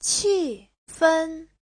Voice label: "qi4fen1", Chinese; Giáo trình Hán ngữ